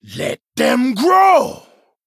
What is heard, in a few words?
Male Troll Attack